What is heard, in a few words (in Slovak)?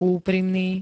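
"úprimný", Slovak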